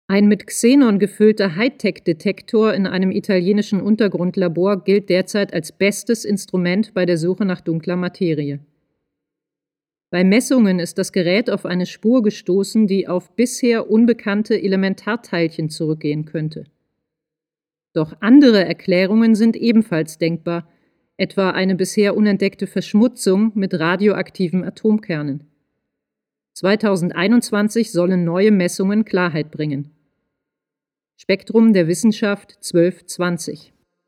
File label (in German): Sprecherin Sachlich Neutral